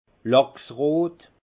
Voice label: "lachsrot", alsace; vin; rose saumon

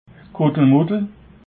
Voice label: "kuttelmuttel", alsace

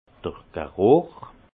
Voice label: "geruch", alsace; vin; l’odorat

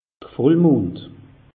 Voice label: "vollmond", alsace; meteo

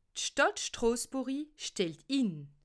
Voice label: "stadt", alsace

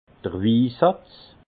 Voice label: "wisatz", alsace; vin; tartre

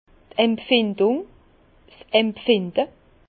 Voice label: "empfindung", Bas Rhin; vin; sensation

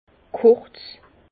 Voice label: "kurz", Bas Rhin; vin; court

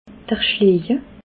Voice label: "schleje", alsace

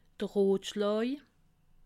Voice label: "rotschlaa", alsace

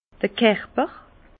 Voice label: "kerper", Bas Rhin; vin; texture

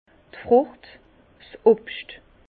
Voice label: "obscht", Bas Rhin; fruit; vin

